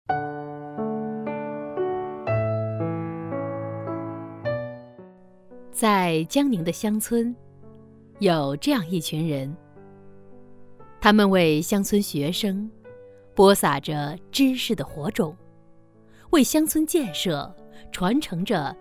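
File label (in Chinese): 专题温情庄重大气; 专题一生的坚守温情庄重大气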